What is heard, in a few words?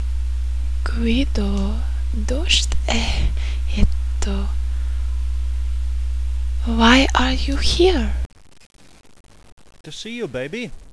conversation